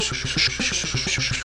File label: postalveolars back, romanian palatalization